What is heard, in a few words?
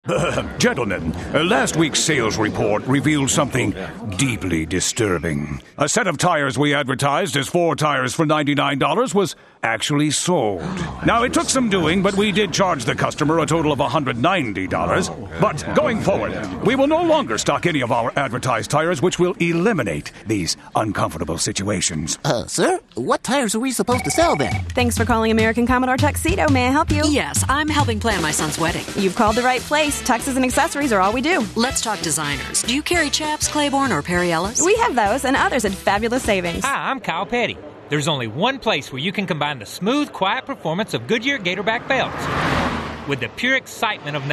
retail radio; audioconcepts